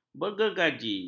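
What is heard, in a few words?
"bergergaji", Malay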